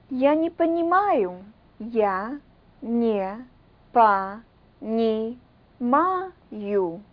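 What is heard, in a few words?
russian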